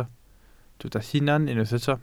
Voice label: "hunan", Welsh

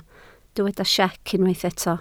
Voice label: "siec", Welsh

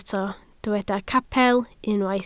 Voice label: "capel", Welsh

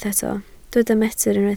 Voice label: "metr", Welsh

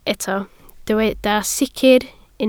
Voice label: "sicr", Welsh

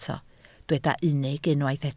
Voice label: "unig", Welsh

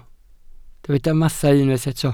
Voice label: "mathau", Welsh

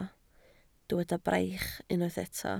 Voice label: "braich", Welsh

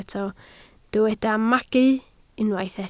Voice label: "magu", Welsh

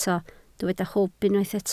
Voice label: "hwb", Welsh

